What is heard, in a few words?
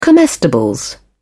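"comestibles", British English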